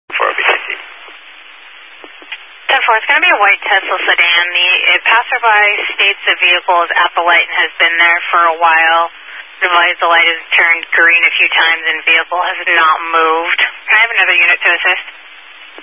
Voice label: Highway Patrol, Phoenix Metro East Area, scanner

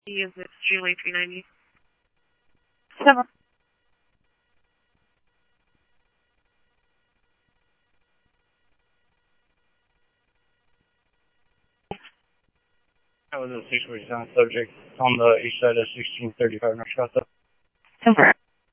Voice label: Scottsdale Police, scanner audio; H-Scottsdale; SCTSDLE PD - PD2 ScottsdalePolice

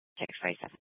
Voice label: Police South Dispatch; Scottsdale Police

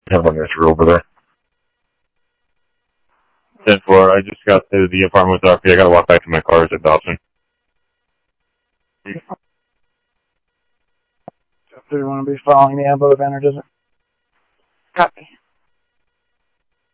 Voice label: Mesa Simulcast police; MESA PD 2 Centrl; MesaPoliceDep; jumper

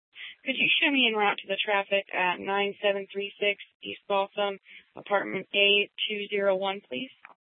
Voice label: Sheriff scanner; Maricopa County (P25) - Thompson Peak; Shrf Mnt (Parks)

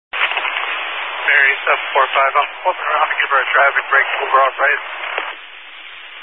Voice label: Highway Patrol, scanner; DPS EAST; Dist 13 Phoenix Metro East Area

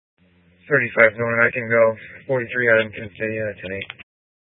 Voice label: Police Dispatch

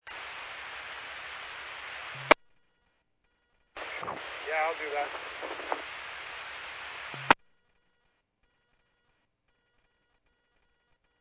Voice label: Medevac, scanner; NativeAirAmb

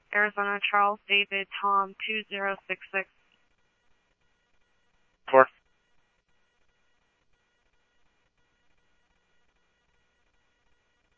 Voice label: police, scanner audio

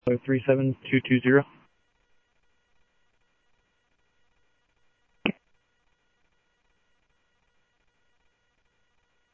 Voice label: Sheriff, scanner audio

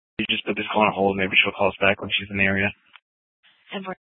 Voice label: Mesa PD Fiesta Dispatch

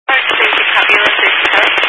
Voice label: Highway Patrol, scanner; DPS; Dist 06 Casa Grande

